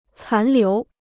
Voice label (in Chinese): "残留", Chinese